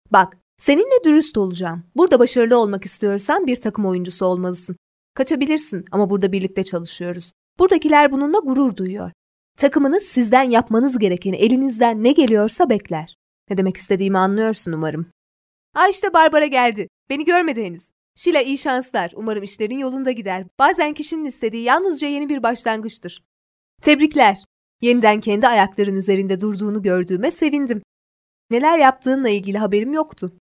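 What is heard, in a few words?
Female Turkish IVR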